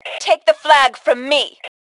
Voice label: Flag Take, Female; T2 Female 01

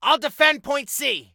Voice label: Soldier Male, I'LL DEFEND

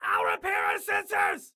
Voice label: Self Repair Sensors, Excited Guy

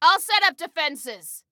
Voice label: Self Task Defenses, Female

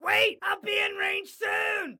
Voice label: Target Wait, Excited Guy

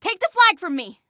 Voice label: Flag Take, Female

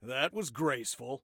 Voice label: Taunt Sarcasm, Soldier Male; Soldier Male 02

